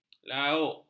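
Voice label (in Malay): "lauk", Malay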